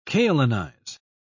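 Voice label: "kaolinize", British English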